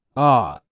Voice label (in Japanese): アメリカ英語ɔːのみの発音